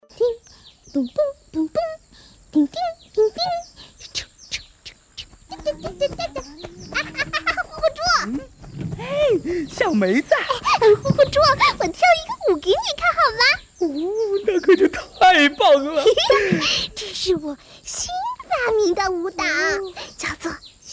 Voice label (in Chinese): 配音; 芝麻街